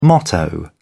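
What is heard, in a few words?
"motto", British English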